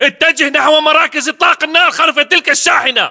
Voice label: order cover truck, battle chatter, english

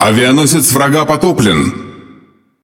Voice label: Speech Destroy Enemy Aircarrier, RU; World Of Warships

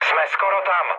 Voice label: near waypoint, speech cze, mood low; War Thunder

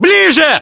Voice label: order move forward, ru; localized english

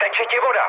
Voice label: damaged water, speech cze, mood low; War Thunder

